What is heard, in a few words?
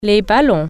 "balloons", French